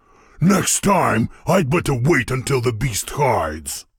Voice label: Voice Monolog; we are the dwarves gamerip 2016